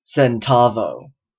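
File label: "centavo", US English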